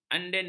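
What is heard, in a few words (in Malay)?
"andeka", Malay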